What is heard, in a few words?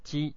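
"chi", Japanese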